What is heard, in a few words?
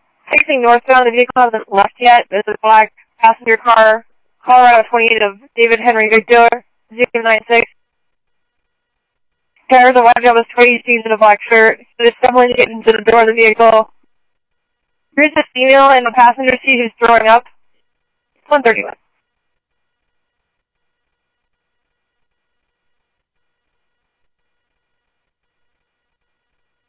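police radio dispatch, Scottsdale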